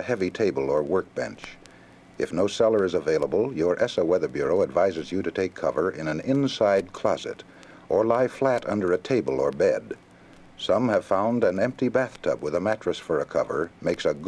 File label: tornado announcement safety; ESSA